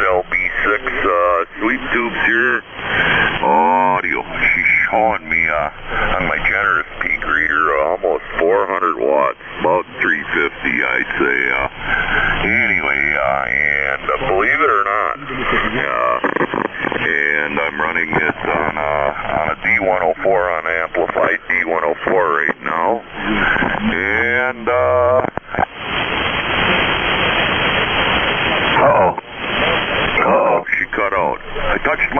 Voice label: Galaxy GT-550 & D-104 Mic; Algoma, Wi.

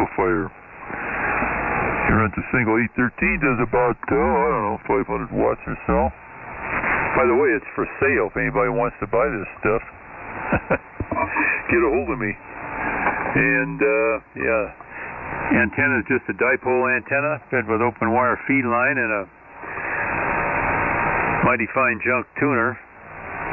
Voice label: Central Electronics 20A 600L Amp & D-104 Mic; Green Bay, Wi.

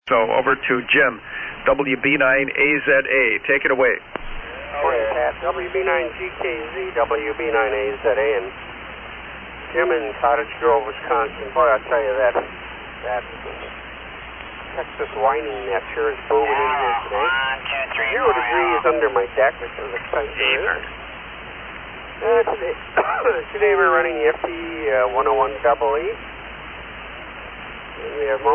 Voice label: Yaesu FT-101EE Turner Mic & FL-2100B Amp; Cottoge Grove, Wi.

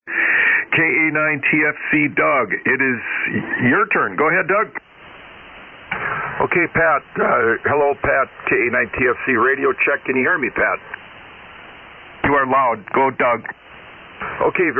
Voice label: Icom IC-761 & 4-250 Homebrew Amp; Caledonia, Wi.

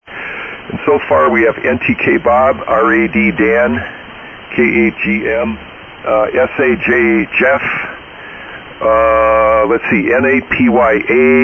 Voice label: SSB Net Check Ins

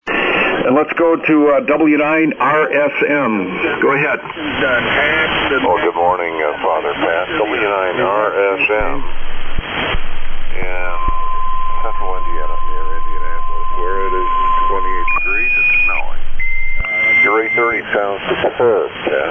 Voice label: Drake C Line Astatic Mic & Palstar LA-1k Amp; Whitestown, IN.

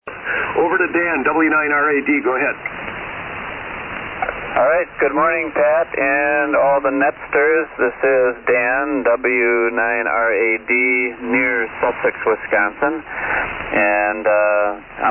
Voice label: Yaesu FT-101E Shure 444 Mic; Sussex, Wi.

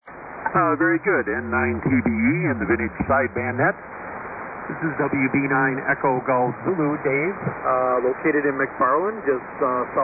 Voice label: Yaesu FT-101ZD & FL2100 Amp; McFarland WI.

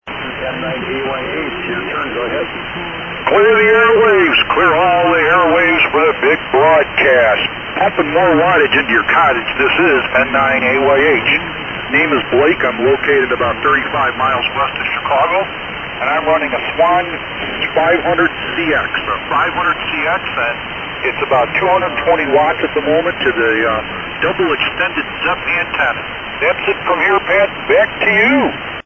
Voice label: Swan 500-CX Shure 444 Mic; Lombard,IL.

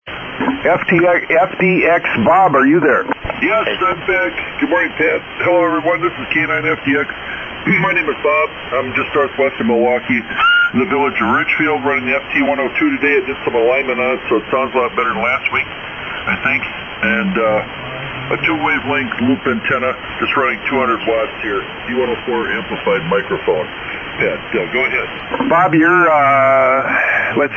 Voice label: Yaesu FT-102; Colgate, Wi.

